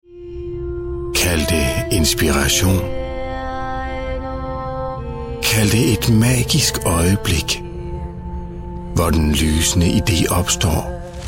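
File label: danish male commercial, voice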